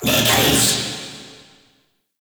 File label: Vocal Verb; Summon; Warframe; Uriel; Summon Vythelas